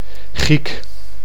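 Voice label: "giek", Dutch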